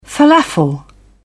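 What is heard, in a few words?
"felafel", British English, dictionary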